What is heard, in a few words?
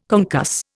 "concuss", Indian